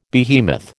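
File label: "behemoth", American English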